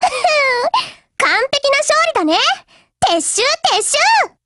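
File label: WIN, Japanese, Voice; GF Alarm; M1873Mod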